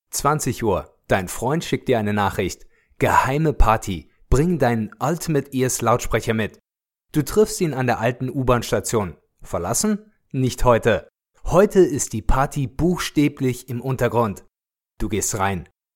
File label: Commercial Upbeat